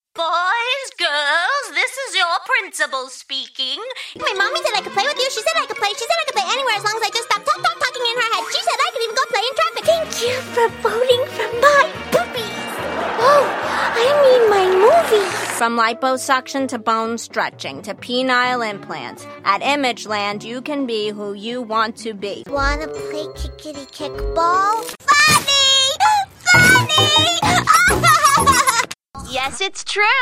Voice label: animation; Solid Talent